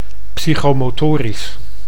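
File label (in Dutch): "psychomotorisch", Dutch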